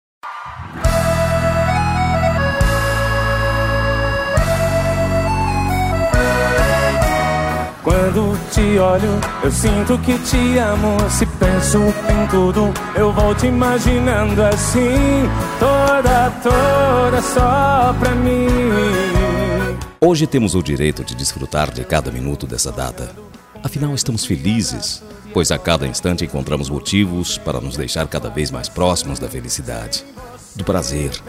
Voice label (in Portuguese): DIA DOS NAMORADOS SUAVE MASC, telemensagem dia dos namorados suave